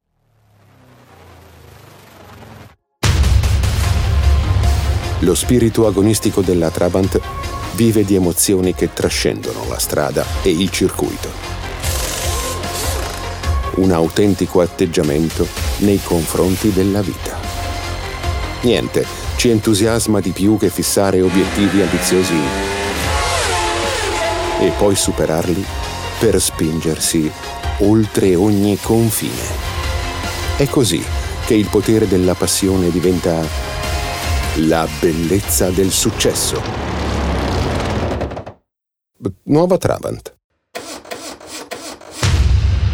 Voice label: F1 Spot; Trabant; Bodalgo

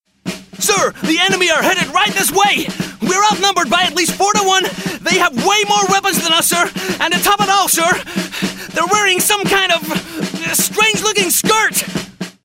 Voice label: USA Soldier

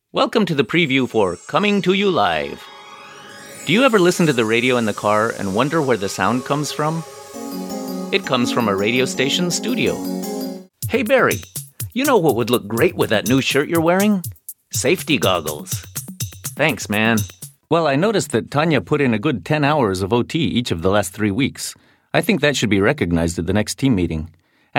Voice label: Narration English

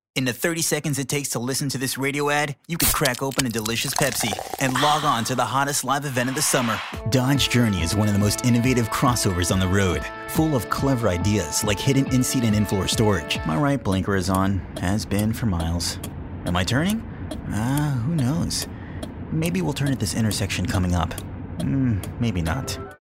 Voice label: Commerical

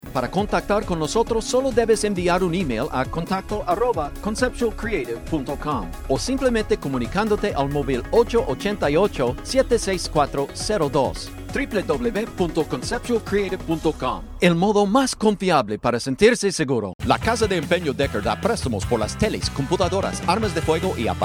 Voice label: Spanish

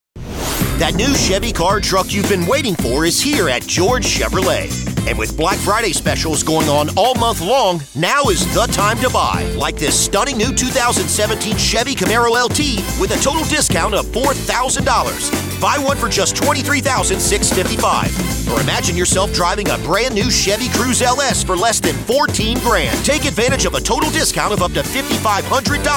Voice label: car ad sample

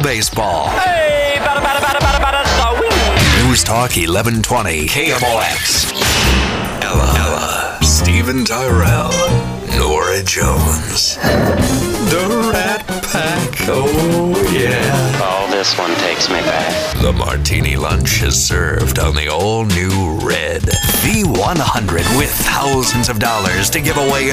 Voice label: Signature Radio Voice

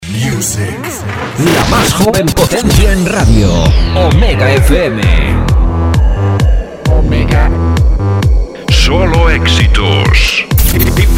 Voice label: jingle; omega fm

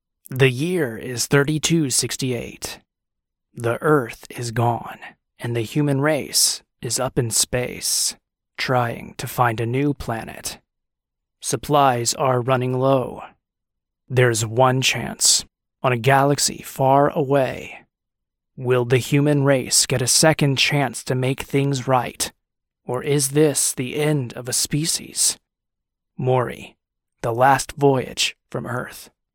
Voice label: Movie Trailer Adult scary

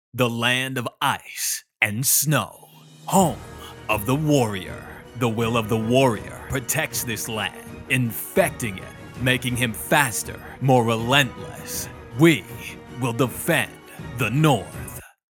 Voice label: Movie Trailer

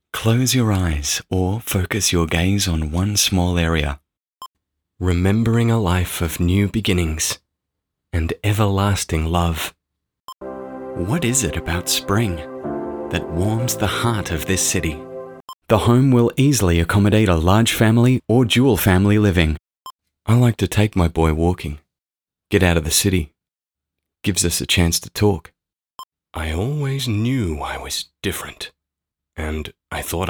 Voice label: Soft Spoken

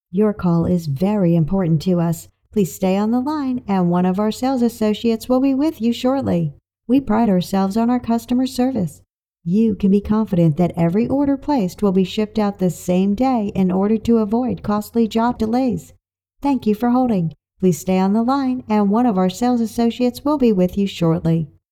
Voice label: telephone

